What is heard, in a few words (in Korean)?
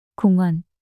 "공원" 단어 여자